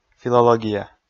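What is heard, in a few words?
"филология", Komi, male; kpv audio dict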